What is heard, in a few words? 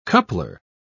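"coupler", British English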